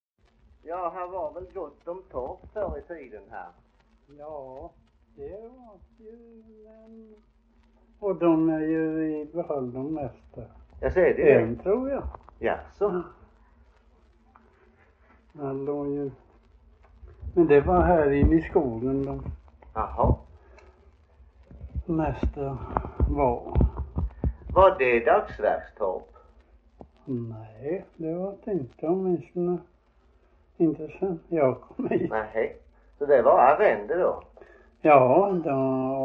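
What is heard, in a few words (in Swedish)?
Frillesås Halland, Svenska sagor dialekter; Folkminnen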